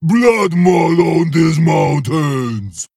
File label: Male Ogre; Bloodmaul Brute